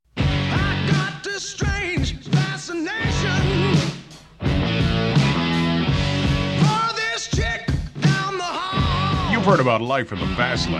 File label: Radio Commercials Movie Soundtrack LP; Fast Times At Ridgemont High; 1982